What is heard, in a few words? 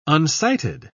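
"unsighted", British English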